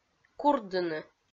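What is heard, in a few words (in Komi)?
"курдыны", Komi-Zyrian, female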